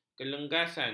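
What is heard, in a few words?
"kelengasan", Malay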